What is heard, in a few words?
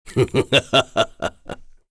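Vox Happy, Korean; Dakaris; kingsraid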